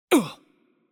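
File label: Hurt Light, Chinese; bloom minigame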